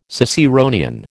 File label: "Ciceronian", American